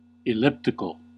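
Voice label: "elliptical", US English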